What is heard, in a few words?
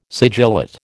"sigillate", American